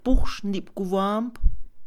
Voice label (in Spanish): escudo, Mixe, Mujer; INALI